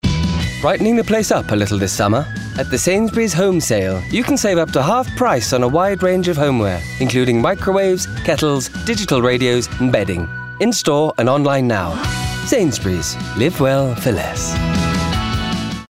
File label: COMMERCIAL; Sainsburys